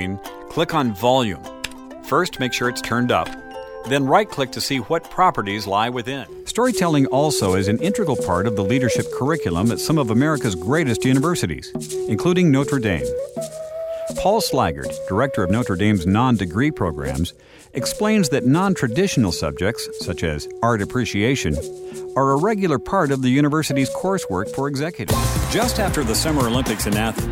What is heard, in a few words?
Male Voice Over Talents Narration